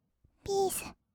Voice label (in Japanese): ピース, kawaii voice; ASMR; 色々なワンショット